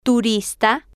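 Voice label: "turista", spanish, female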